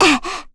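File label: Vox Landing, voices heroes English; Lilia; kingsraid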